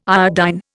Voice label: "iodine", Indian